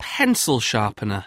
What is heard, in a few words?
"pencil sharpener", English